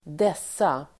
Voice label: "dessa", Swedish

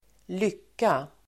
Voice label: "lycka", Swedish

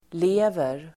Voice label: "lever", Swedish

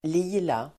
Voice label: "lila", Swedish

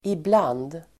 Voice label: "ibland", Swedish

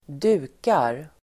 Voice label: "dukar", Swedish

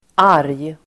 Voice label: "arg", Swedish

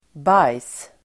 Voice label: "bajs", Swedish

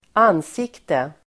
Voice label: "ansikte", Swedish

